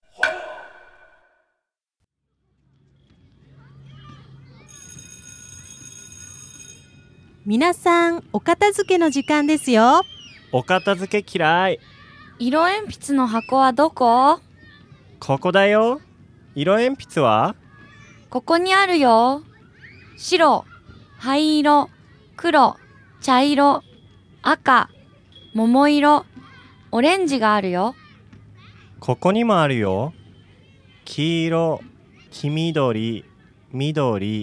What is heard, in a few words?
dialog; JapanesePod101; Beginner Season 1